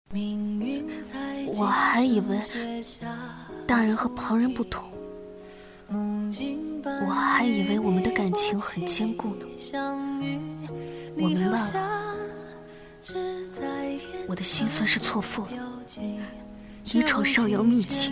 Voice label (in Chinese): 古风失望生气哭戏等情绪音